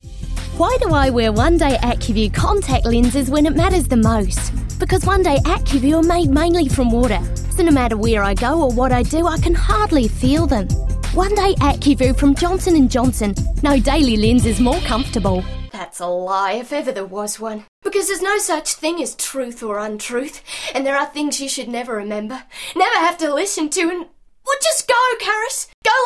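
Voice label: English Australian New Zealand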